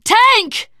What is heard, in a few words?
warn tank, teen girl, survivor voice; source engine; left 4 dead 2